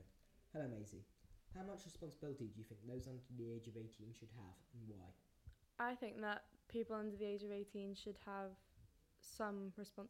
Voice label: Vox Pop

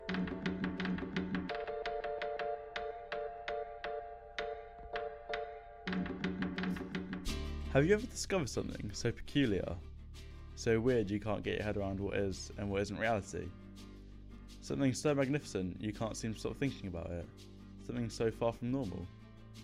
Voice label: Radio Drama

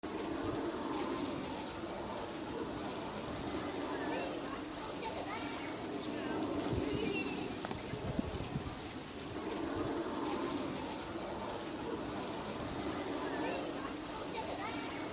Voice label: Shoe Commercial; Adidas

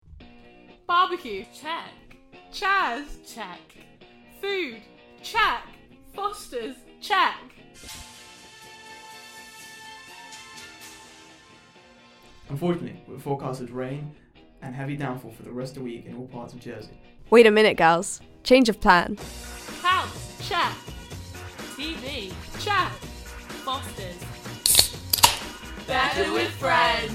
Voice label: Audio Advertisement; Fosters

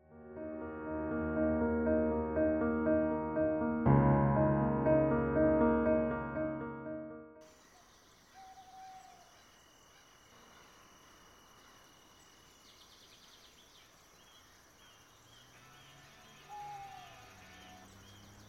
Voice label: Radio Drama; Deviant

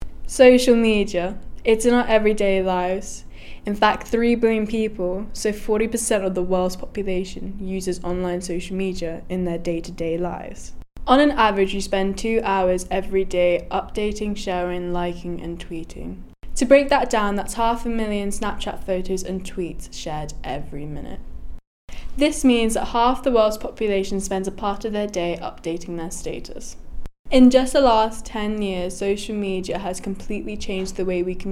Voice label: podcast

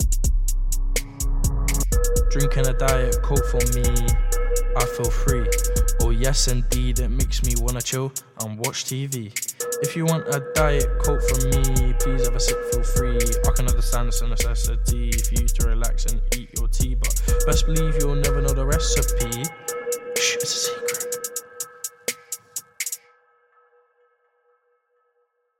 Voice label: Diet Coke Advertisment

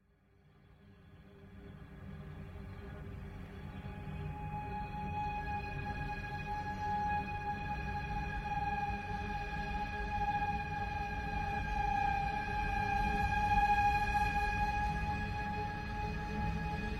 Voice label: Drama

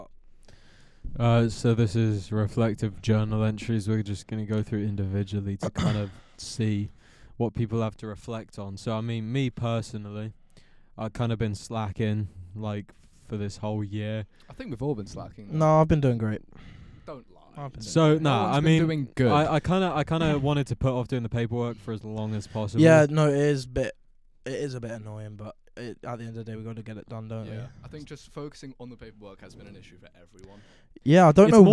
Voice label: Reflection Podcast

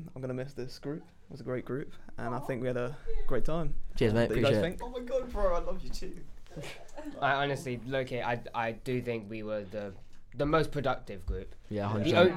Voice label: Podcast

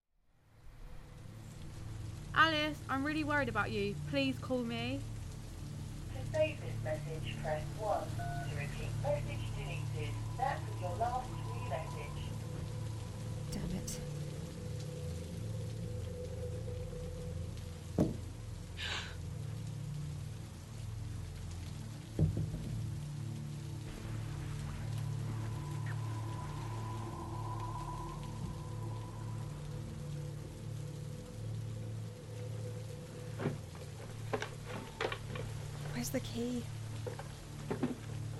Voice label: Radio drama

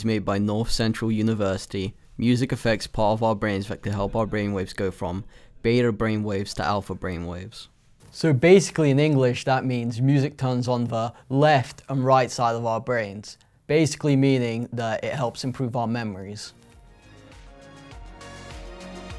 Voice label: Podcast

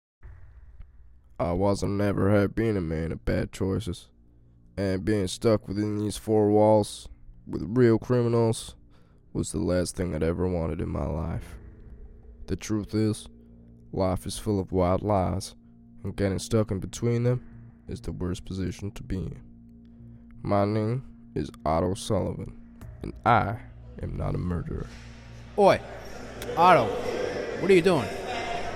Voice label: Radio Drama; Break Out

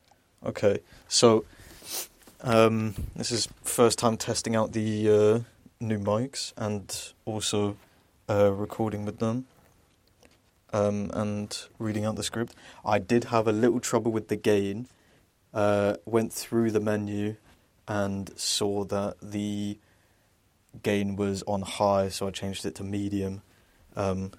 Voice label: Voiceover draft

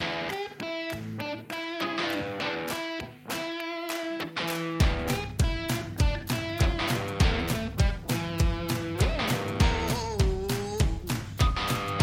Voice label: Skate park Audio Podcast

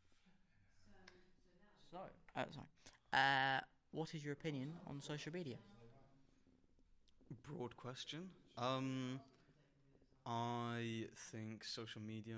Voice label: better interview example

